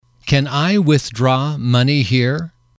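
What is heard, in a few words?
"can I withdraw money here", English, male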